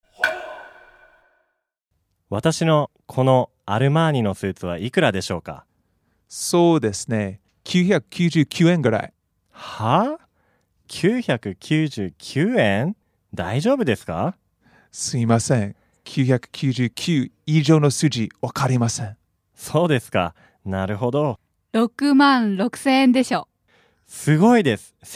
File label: dialog; JapanesePod101; Beginner Season 1